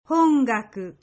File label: "Hongaku", Japanese